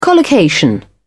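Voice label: "collocation", British English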